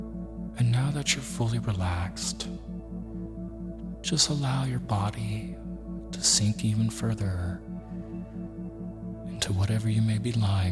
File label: Meditation for Beginners With Isochronic Tones